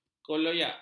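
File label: "keloyak", Malay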